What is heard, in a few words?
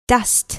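"dust", English word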